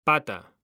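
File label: "pata", Spanish; mekanta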